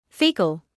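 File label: "faecal", British English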